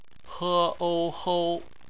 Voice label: "hou", Chinese; bpmf